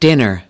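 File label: "dinner", English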